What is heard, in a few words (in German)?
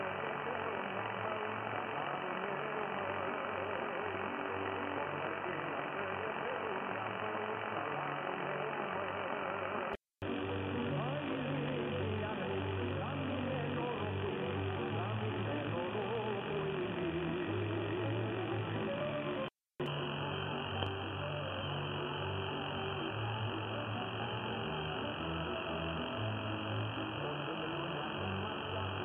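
153KHz, Radio Satelor aus Rumänien; NRD545; IC-R8600; G33DDC; Notchfilter; Noiseblanker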